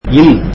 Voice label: tamil in